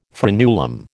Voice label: "frenulum", American